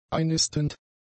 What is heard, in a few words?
"einnistend", German; adjectives